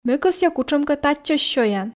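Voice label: Komi sentences, female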